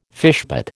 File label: "fishpot", American